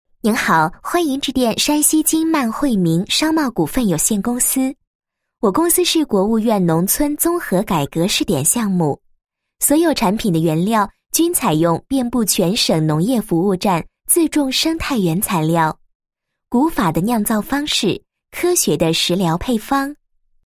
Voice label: 女 彩铃 欢迎致电 商贸股份有限公司; 金鳗惠民

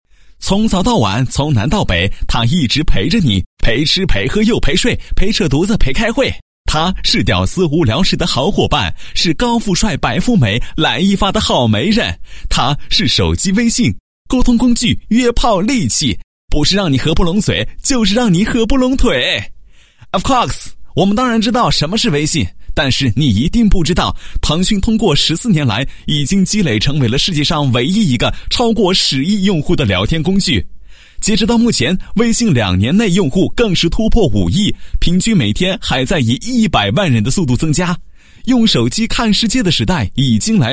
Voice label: 男3-广告-手机微信调侃语气的, 国语男声配音

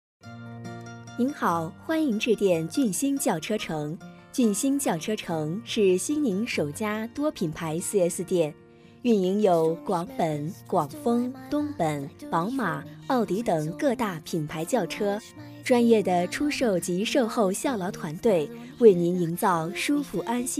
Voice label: 女, 彩铃

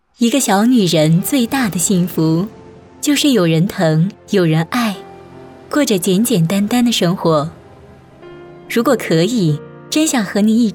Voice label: 女, 广告, 小女人, 甜美品质

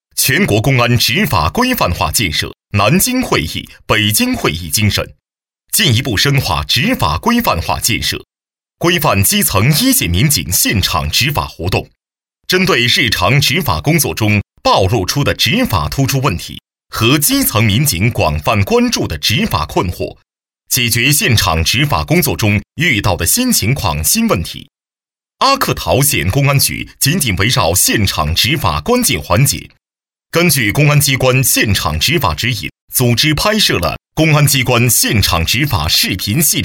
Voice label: 党政专题, 大气; 中国公安

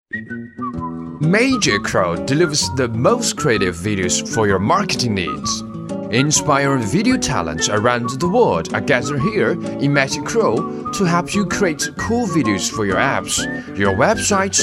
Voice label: 英男, 轻松诙谐

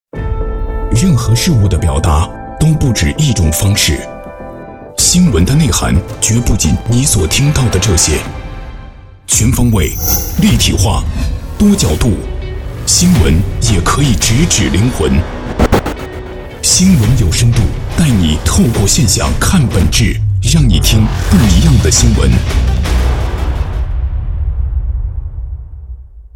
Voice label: 节目片花包装; 新闻有深度

